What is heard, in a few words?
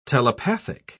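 "telepathic", British English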